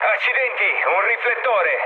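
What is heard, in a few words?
Italian searchlite mood low, speech; War Thunder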